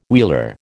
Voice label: "wheeler", American